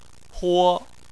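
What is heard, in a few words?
"huo", Chinese, first tone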